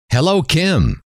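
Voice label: hello, male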